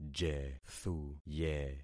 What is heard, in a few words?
"jethuye", concat syllables; Affixing experiment